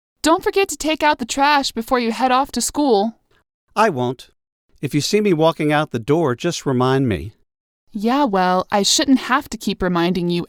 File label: conversation